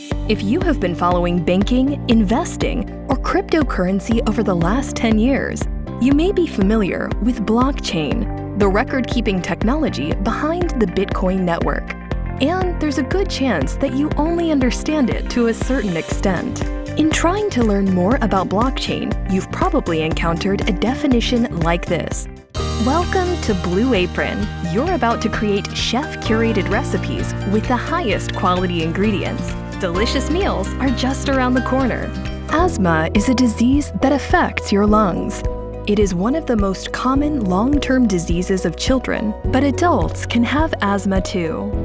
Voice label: elearning